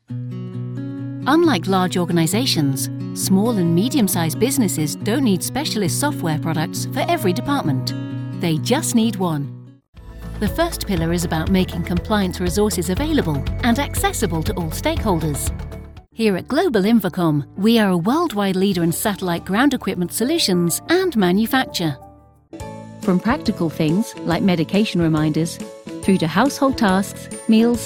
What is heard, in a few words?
Corporate